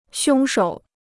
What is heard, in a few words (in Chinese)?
"凶手", Chinese